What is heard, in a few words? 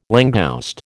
"langouste", American